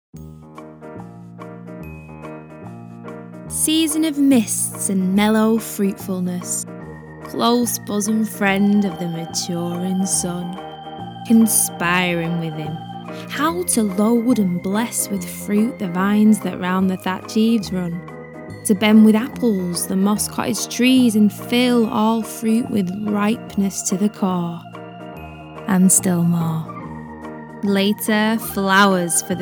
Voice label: Spoken Word

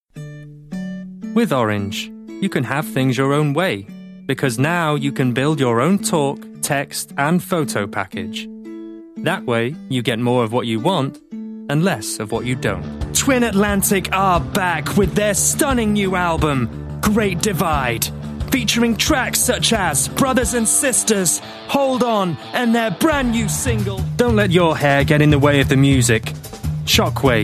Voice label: Northern

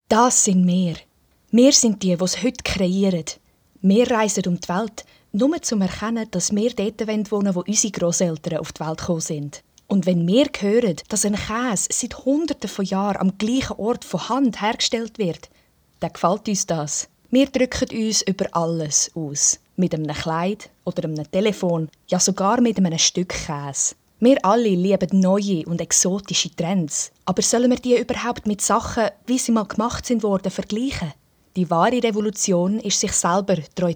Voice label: swiss; reel

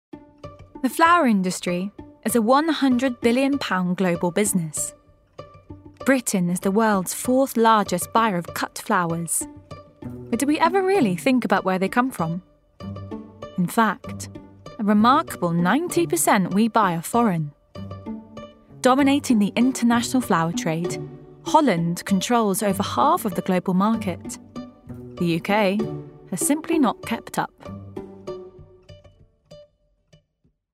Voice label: Documentary

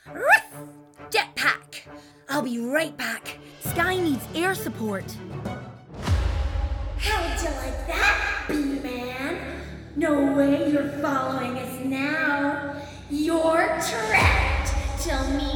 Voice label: Animation

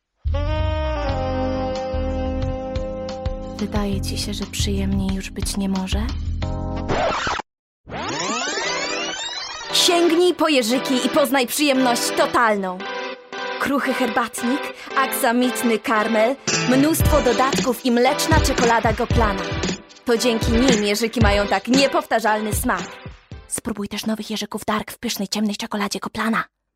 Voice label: Polish

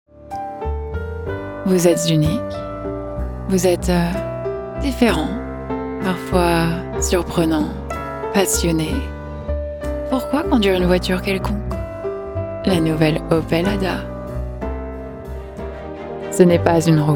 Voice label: French

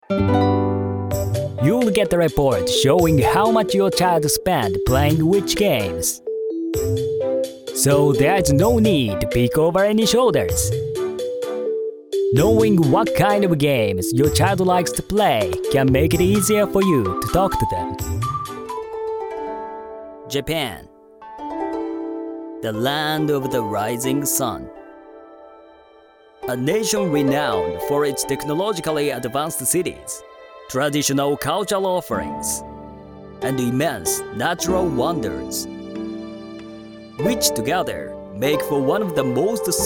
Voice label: English Voice

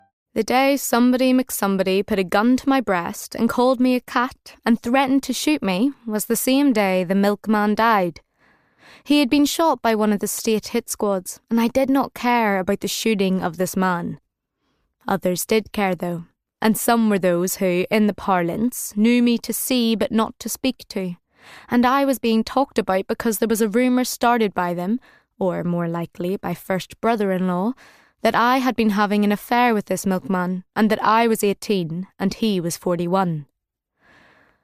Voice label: Audiobook

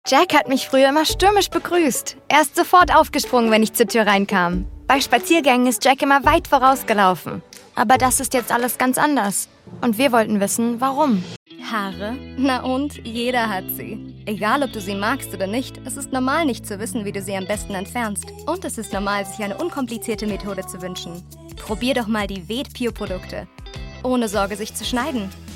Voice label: Commercial

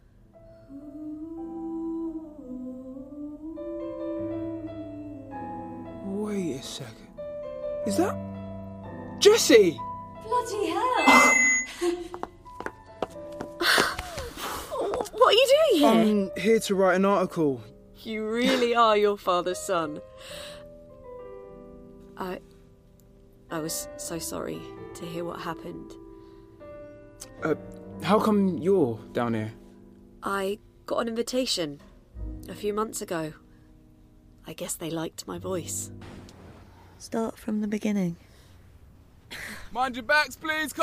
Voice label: Radio Drama

